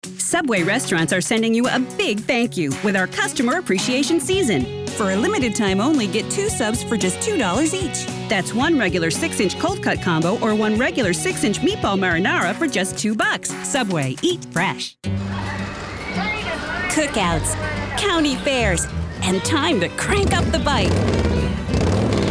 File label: High Energy Commercials